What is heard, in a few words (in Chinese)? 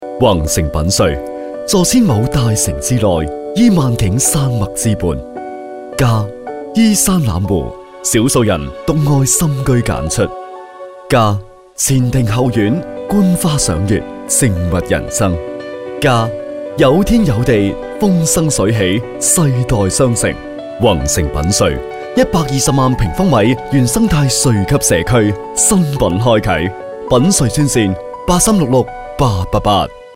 男粤 广告 地产; 粤语; 弘诚品墅